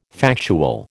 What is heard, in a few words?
"factual", American